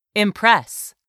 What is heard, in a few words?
"IMPRESS", english; 3000 words